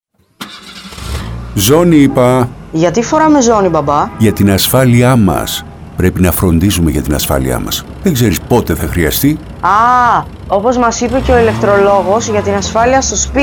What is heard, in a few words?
radio spot; ΣΕΗΘ